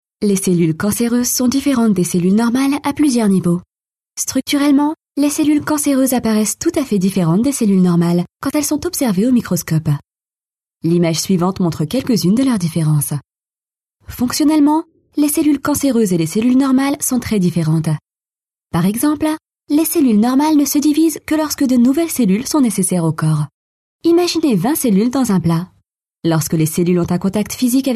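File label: French, female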